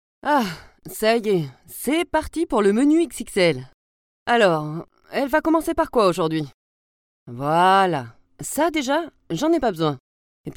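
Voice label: French, female, monologue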